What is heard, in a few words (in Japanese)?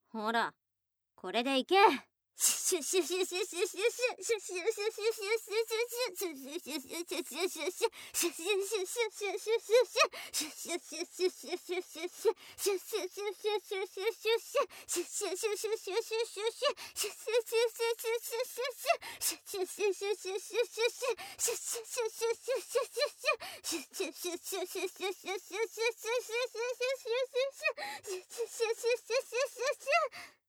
罵倒 シュッシュ